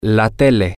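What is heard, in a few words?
the TV, Spanish, male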